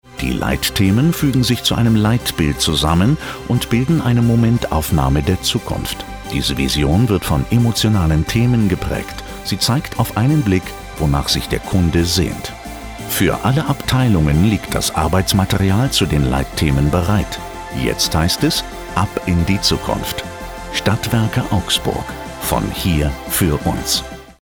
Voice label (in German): videopraesenation; STADTWERKE AUGSBURG